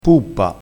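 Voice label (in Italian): "puppa", dialetto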